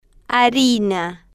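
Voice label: "harina", Spanish, female, slow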